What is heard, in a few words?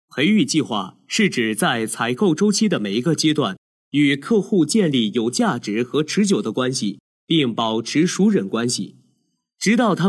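chinese mandarin male e-learning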